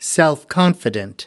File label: "self confident", us